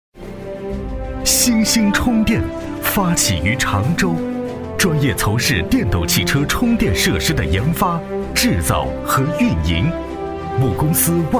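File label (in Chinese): 男 专题（力量 大气 希望感）; 星星充电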